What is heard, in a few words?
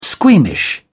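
"squeamish", British English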